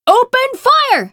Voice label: FEMALE FIRE; combatarms